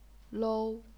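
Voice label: "lou", first tone, chinese pinyin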